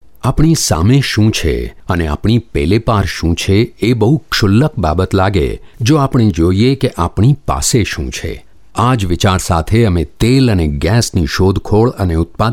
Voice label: Gujarati, India Male

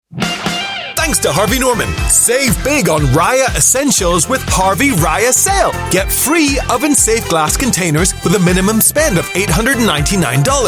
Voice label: Asian English; Harvey Norman

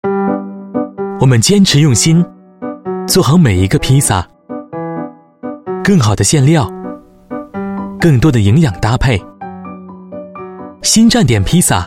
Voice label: Mandarin China; Pisa

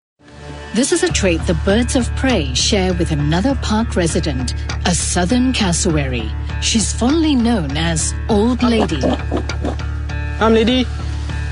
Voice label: Asian English Documentary; CNA The Great Migration New Eden

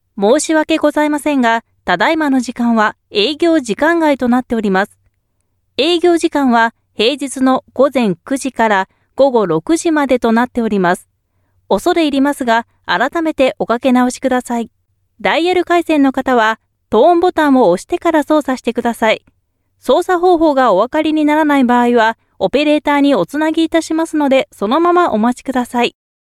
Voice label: Japanese Phonesystem, Japanese Female

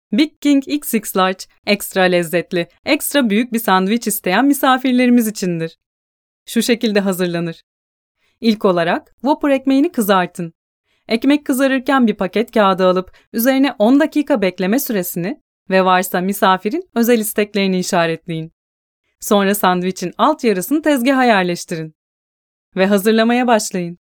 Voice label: Turkish eLearning, Female

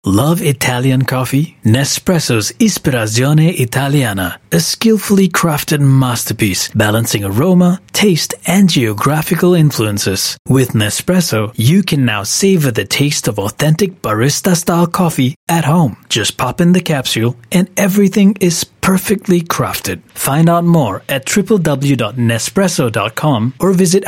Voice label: Malay Clean VO English; Nespresso

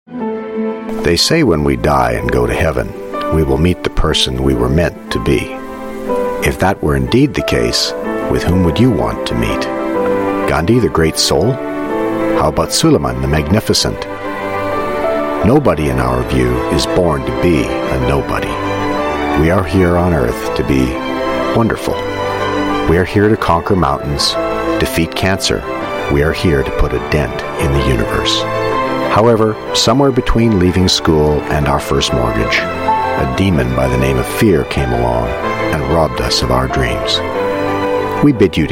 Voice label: US English Compilation Reel

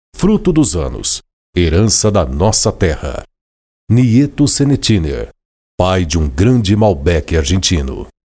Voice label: Brazilian Portuguese, Brazilian Portuguese Male

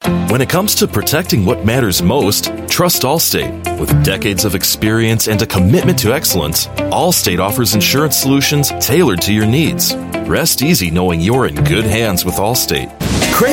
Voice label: US English Commercial

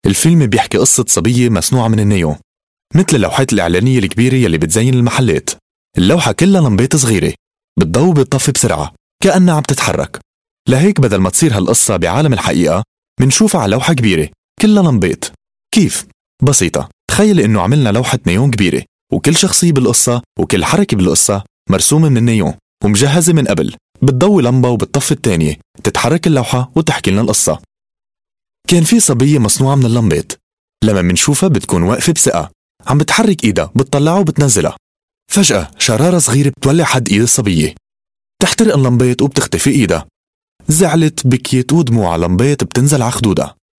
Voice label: Arabic Male

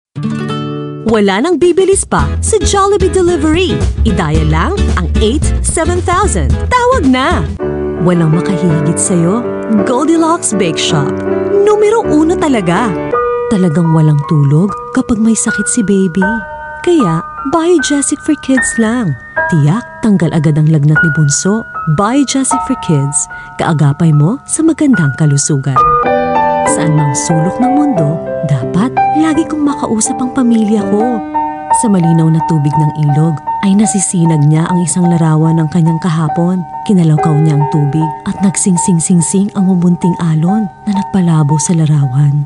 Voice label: Tagalog Compilation F

